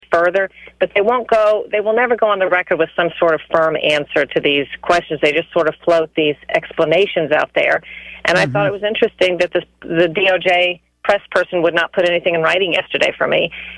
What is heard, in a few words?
yells at reporter